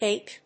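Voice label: "hake", English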